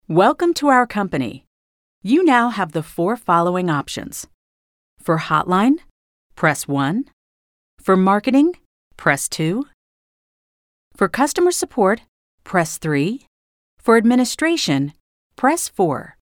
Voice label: us ivr